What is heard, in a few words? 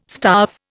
"starve", Indian